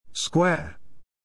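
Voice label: "square", English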